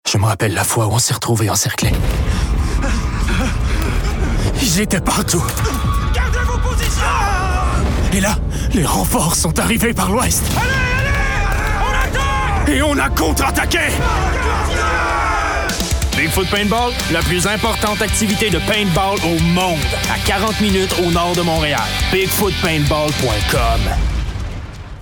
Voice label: Pub Annonceur fictif Intense Personnage virile Qc; Qc familier; Bigfoot paintball

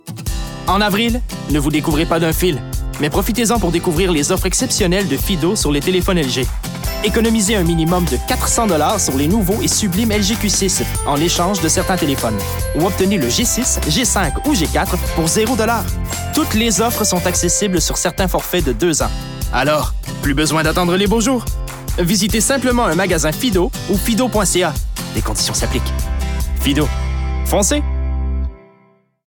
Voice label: annonceur fictif Sympathique Souriant Qc Soutenu, voix; FIDO

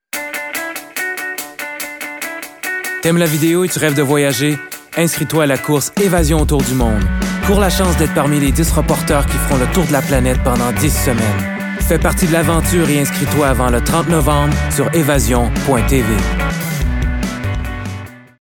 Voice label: Annonceur Convivial Creneau Ados Qc naturel; La course autour du monde

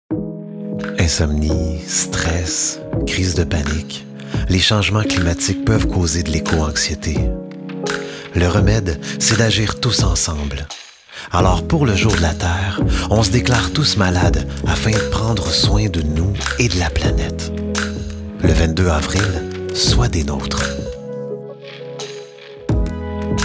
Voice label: Français québécois familier, Voix Douce Rassurante Sobre; Jour de la Terre

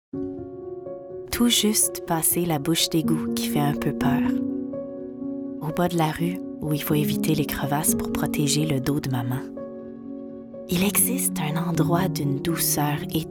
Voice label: PUB, Réconfortante - Conviviale - Québécois naturel; Radio Flyers

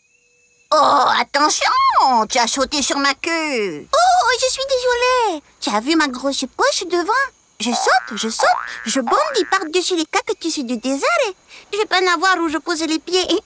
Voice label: Voix de personnages Voix aigües; Le dragon barbu et le diable de Tasmani; drôles jeune

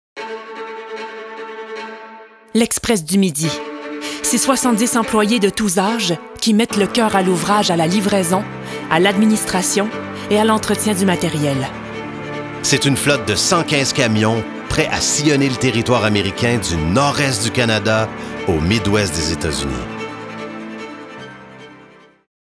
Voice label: Voix affirmée déterminée ambitieuse Narration corporative; Express du midi

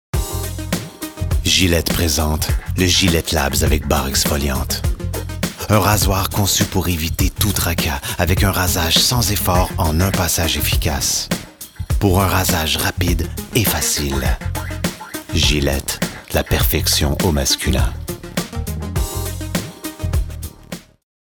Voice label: Français québécois soutenu Voix Sensuelle Charmante Sobre posée; Gilette

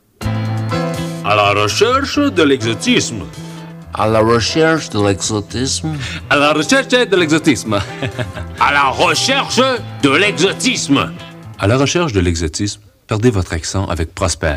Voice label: Sympahtique Accents varie.s Farncais soutenu

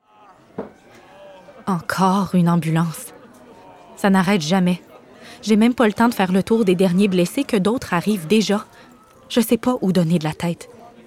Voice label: Voix de composition, Inquiète - Chargée d'émotions; Québécois familier; La Bataille de Terre-Neuve sur Radio Canada

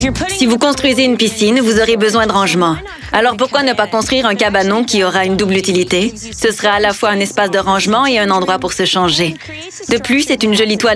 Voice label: émission rénovation Surimpression vocale, Confiante - Diction impeccable - Français normatif; Émission de rénovation

